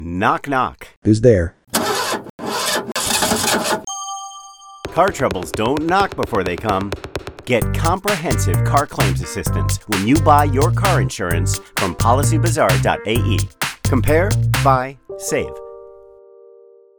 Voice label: English spot, radio